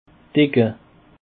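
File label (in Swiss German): "decke", Alsatian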